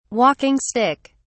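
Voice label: "walking stick", British English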